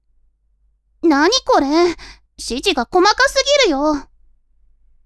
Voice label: women